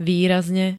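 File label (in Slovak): "výrazne", Slovak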